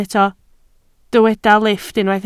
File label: "lifft", Welsh